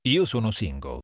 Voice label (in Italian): "sono single", Italian